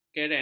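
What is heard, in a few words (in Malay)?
"keren", Malay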